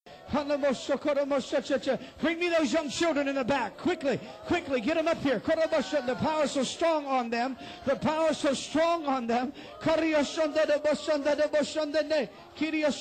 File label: glossolalia